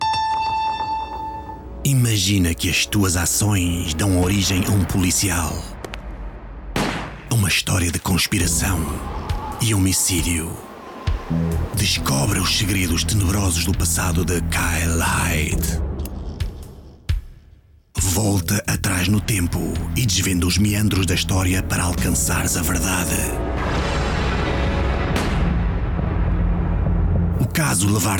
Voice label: game, portugiesisch